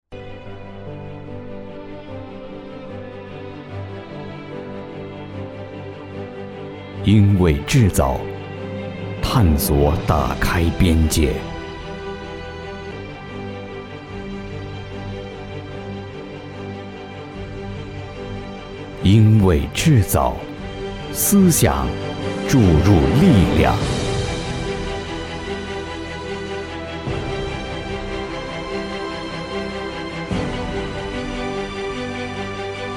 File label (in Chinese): 品牌广告